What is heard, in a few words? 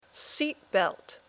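"seat belt", English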